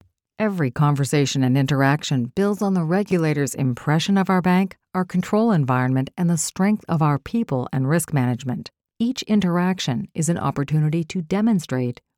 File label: Bank Professional Teaching